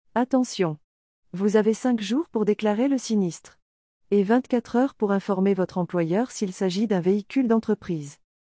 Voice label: VOIX OFF

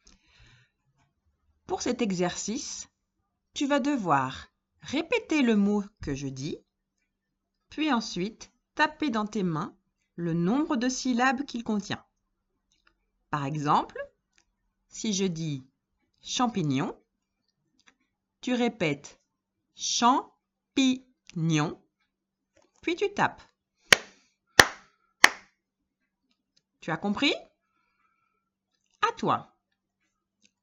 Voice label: Langage robot

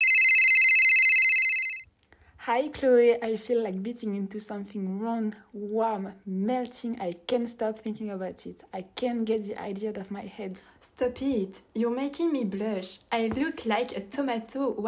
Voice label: anglais rebranding pub

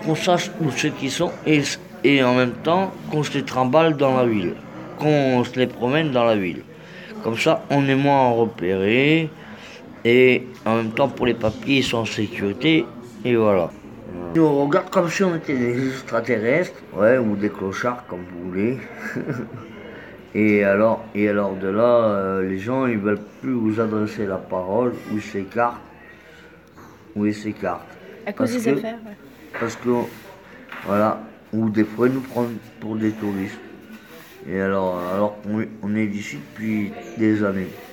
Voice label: Temoignage complet casiers

